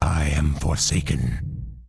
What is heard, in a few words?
Undead Male Warrior NPC Greeting